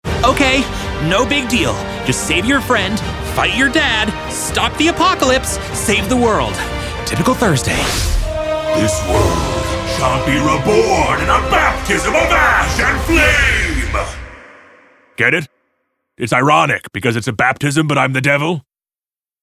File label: animation men, voices men